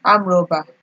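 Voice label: "arm robber", Nigerian Pidgin, female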